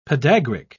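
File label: "podagric", British English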